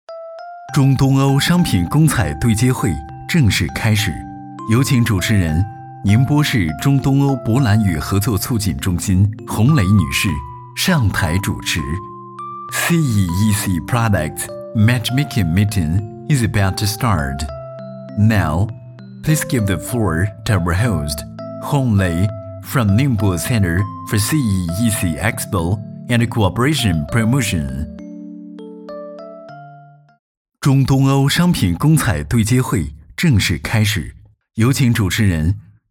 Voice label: 男 中英文 会议提示 正式温馨

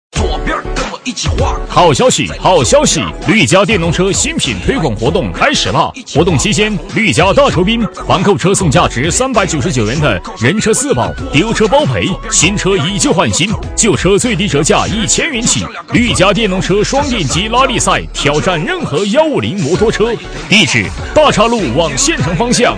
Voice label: 男 促销 电动车, 促销男配; 绿甲电动车

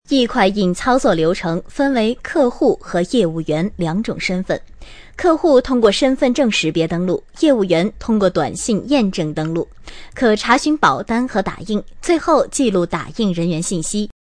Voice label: 女 专题 端庄

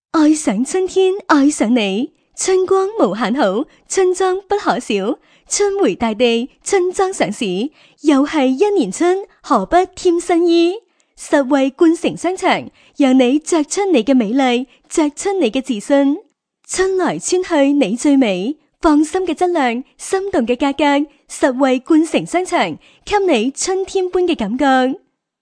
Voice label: 女 粤语 粤语广告

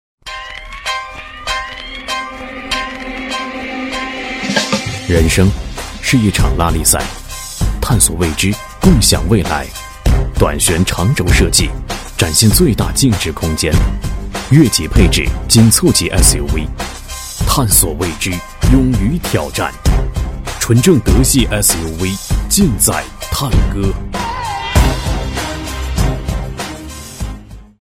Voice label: 男 高端广告 SUV

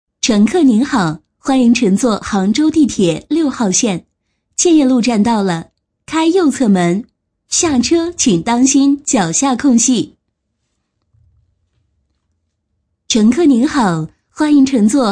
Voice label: 女 专题 地铁; 杭州地铁

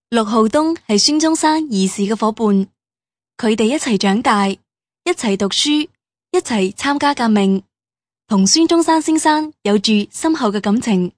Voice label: 女 粤语 景点介绍

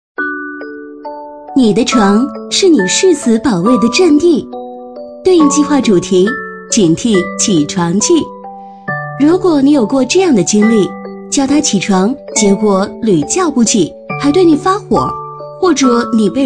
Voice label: 女 解说 起床气 轻松

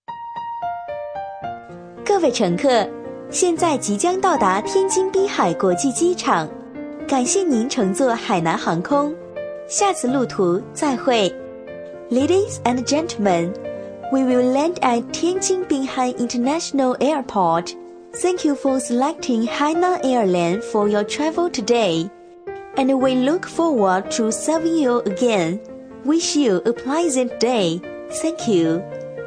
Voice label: 女 广告 中英文 温柔甜美; 海南航空

